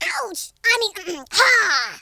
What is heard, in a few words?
"ouch", American Wrestler